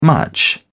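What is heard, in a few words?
"much", British English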